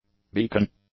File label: "beechen", English; adjectives